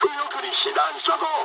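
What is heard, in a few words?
Japanese right wing mood med; War Thunder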